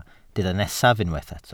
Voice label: "nesaf", Welsh

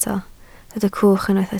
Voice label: "cwch", Welsh

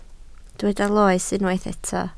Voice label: "loes", Welsh

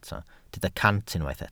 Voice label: "cant", Welsh

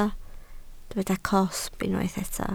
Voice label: "cosb", Welsh

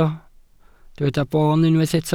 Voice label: "boon", Welsh

